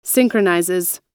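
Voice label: "synchronizes", US English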